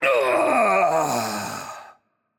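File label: Spanish death voice; bloom minigame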